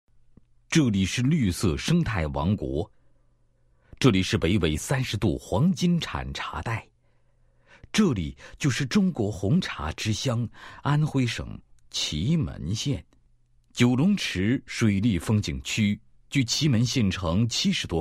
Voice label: 男, 地区; 安徽省祁门县九龙池景区

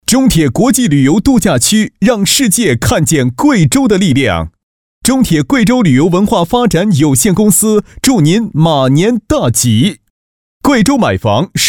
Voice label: 企业拜年广告

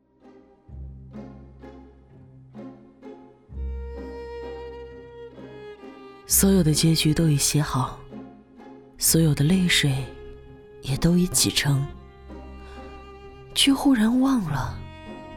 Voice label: 女 独白; 花样年华

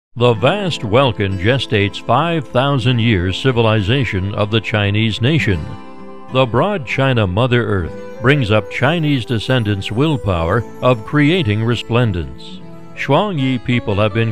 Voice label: 外籍男, 专题; 中国创意

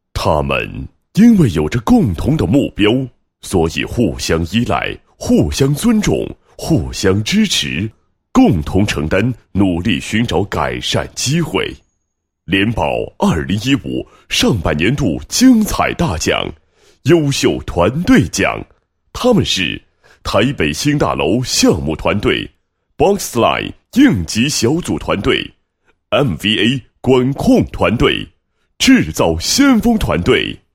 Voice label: 男-颁奖词, 配音案例; 联宝 2015 上半年度精彩大奖颁奖词

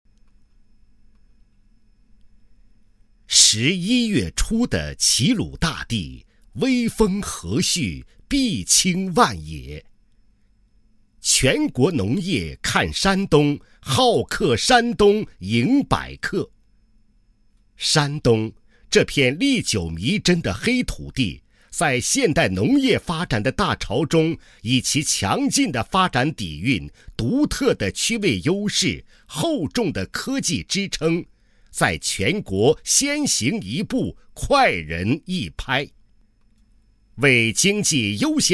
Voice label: 男, 政府工作; 农安县优秀村书记赴域外培训考察配音

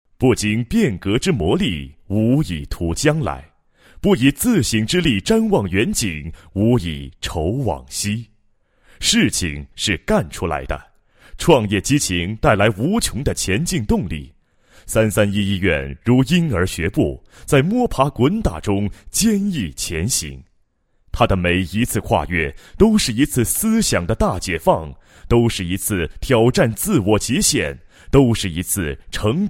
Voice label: 男 医院专题, 国语男声配音 厚重大气

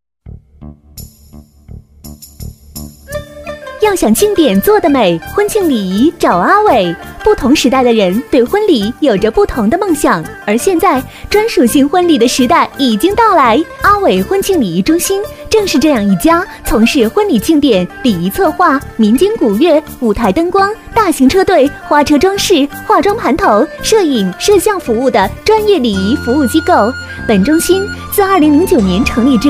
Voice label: 女1 广告 婚庆, 国语女声配音, 磁性; 阿伟婚庆

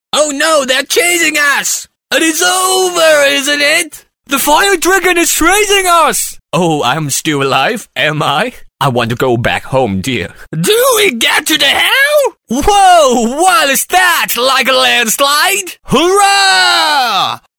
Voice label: 英男, 角色多变声音, 合集

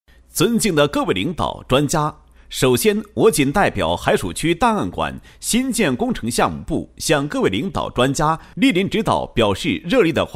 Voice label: 男 工程报告; 档案馆工程项目报告

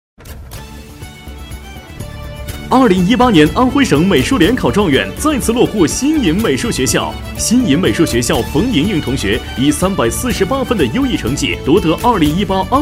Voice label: 男 广告 激昂 美术学校

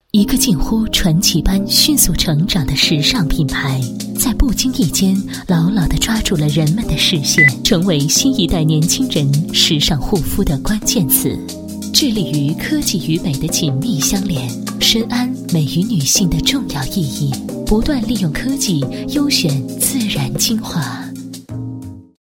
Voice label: 女4号 优雅小资, 国语女声配音; XI美肤精华

